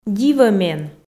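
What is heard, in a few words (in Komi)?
"дивӧ мен", Komi, female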